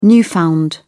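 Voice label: "newfound", British English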